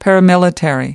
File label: "paramilitary", us